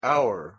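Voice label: pronunciation "our", English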